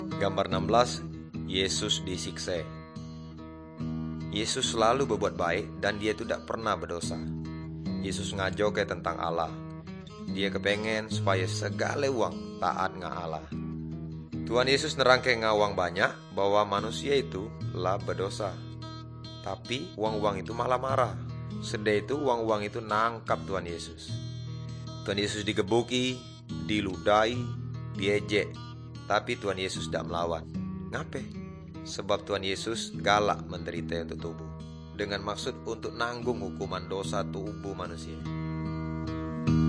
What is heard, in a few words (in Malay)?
good news melayu tanjung raja